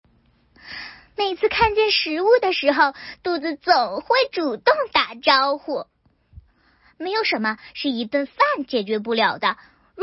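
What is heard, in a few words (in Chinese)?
试音, 女 普通话 童声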